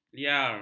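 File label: "liar", Malay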